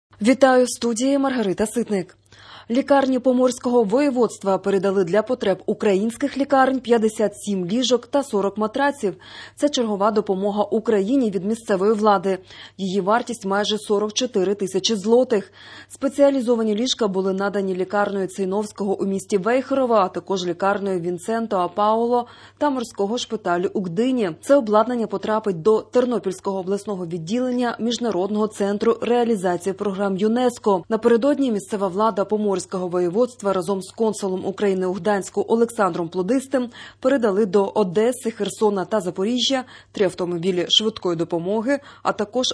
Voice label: Ukrainian Morning News